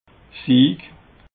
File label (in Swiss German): "sieg", alsace